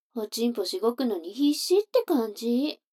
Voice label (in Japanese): 女の子 台詞